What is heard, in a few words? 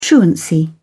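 "truancy", British English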